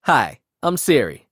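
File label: American English; en-US; iOS Sounds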